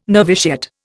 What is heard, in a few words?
"noviciate", Indian